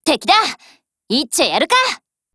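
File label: MEET, Japanese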